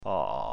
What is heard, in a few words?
creaky